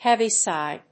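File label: "heavy sigh", English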